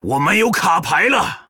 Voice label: Male Troll No Cards; zh